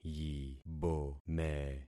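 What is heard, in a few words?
"yibome", concatenated syllables; Affixing experiment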